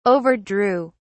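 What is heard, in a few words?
"overdrew", British English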